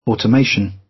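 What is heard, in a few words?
"automation", British English; Design and innovation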